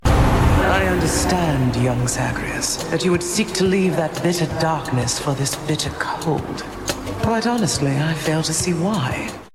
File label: Grandmother transatlantic british fantasy cold icy maternal matriarch wry